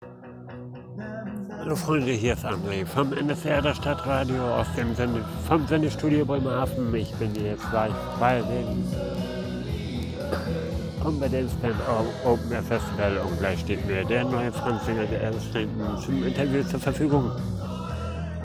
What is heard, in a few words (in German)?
Interview